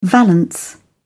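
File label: "valance", British English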